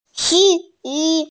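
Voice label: "cí yǔ", Mandarin Chinese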